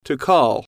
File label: "to Call", English